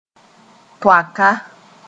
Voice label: "toaka", moore